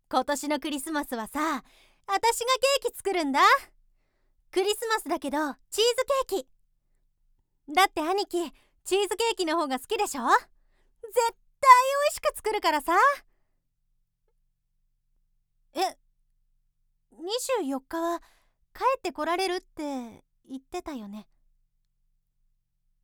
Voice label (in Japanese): 女性 元気妹